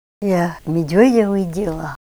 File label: I (female) saw a bear, ninilchik russian; Ya m'idwéd'a w'íd'ila.; sight; w'íd'it' ВИДЕТЬ; v ipfv. see